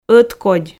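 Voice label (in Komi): "откодь", Komi, female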